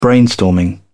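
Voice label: "brainstorming", British English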